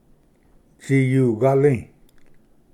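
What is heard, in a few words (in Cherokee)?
"tsiyugali", Cherokee word, male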